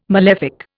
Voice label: "malefic", Indian